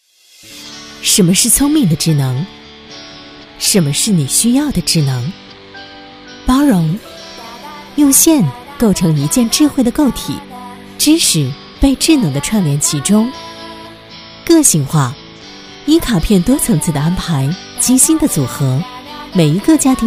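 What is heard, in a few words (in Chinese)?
女-产品广告【知性温婉】; 质感磁性; 天猫精灵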